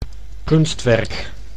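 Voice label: "kunstwerk", Dutch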